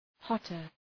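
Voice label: "hotter", English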